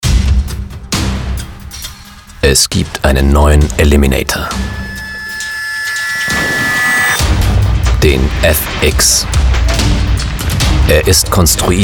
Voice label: trailer; eliminator 3; Muttersprache Deutsch/Schweizerdeutsch